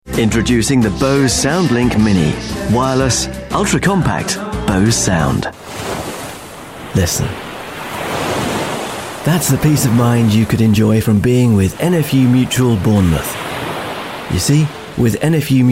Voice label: Commercials